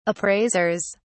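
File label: "appraisers", British English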